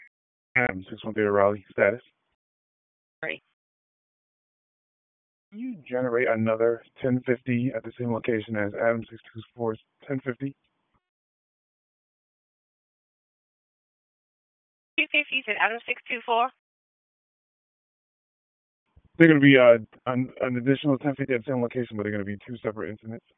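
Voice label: Police Southwest District Dispatch, p25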